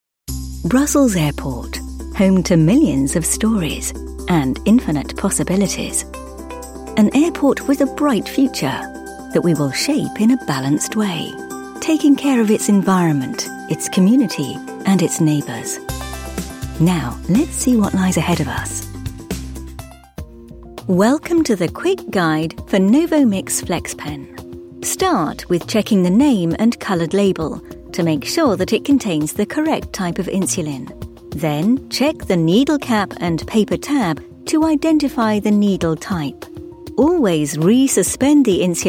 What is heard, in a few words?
Corporate; Voiceovers UK; Corporate Reel